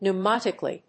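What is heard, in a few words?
"pneumatically", English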